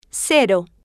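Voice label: "cero", Spanish, female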